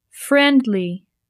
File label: "friendly"